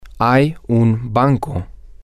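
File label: Spanish, male; there Is A Bank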